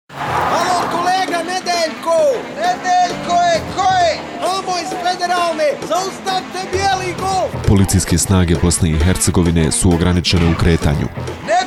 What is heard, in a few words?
radio spot; police campaign; motorola